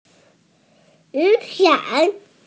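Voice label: "yu3san3", Mandarin Chinese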